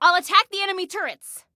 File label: Self Attack Turrets, Female; Female 02